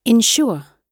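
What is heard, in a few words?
"ensure", British English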